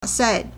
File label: "sai4", Chinese